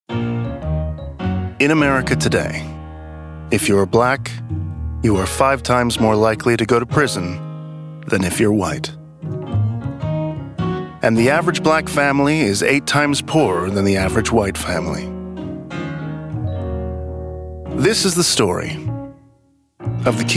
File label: doco